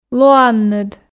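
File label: "лоанныд", Komi, female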